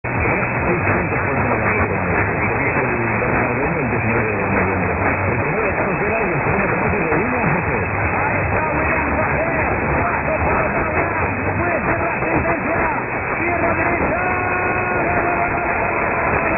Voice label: gooooaaallll; SER Spain